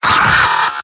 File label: pain sharp; demoman; mvm